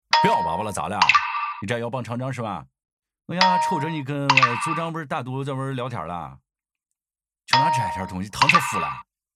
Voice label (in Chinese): 山西方言 男 山西话; 方言配音